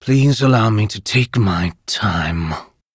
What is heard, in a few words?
Blood Elf Emote Thinking